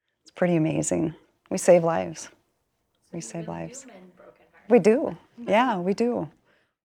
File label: Interview Raw